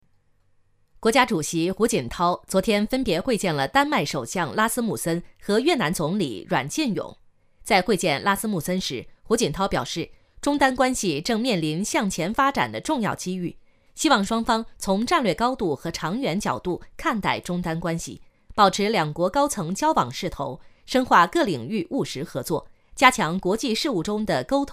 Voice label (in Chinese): 新闻女-成熟稳重